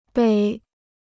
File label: "北", Chinese